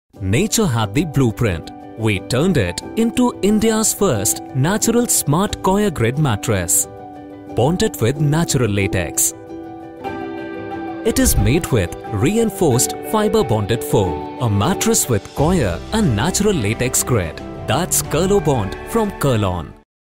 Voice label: Commercial, English, Male, High Baritone Deep Voice